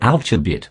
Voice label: posh headcrab ouch; garrysmod